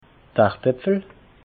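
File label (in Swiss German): "hardepfel", Alsatian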